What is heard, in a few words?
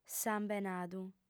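"sambenadu", Sardinian, female; Sàbadu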